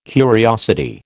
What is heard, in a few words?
"curiosity", American